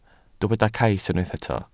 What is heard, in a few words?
"cais", welsh